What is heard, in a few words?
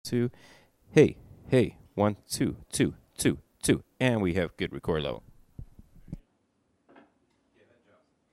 sound check 1 2